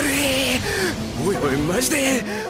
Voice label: Scared; Aomine

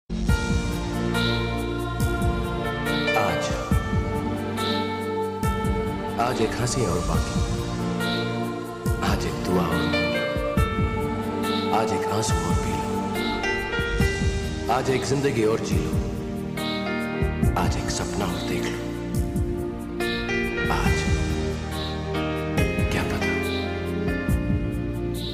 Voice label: Sad Dialogue

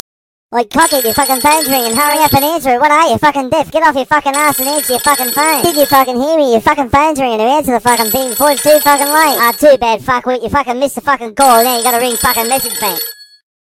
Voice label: Chipmunk phone

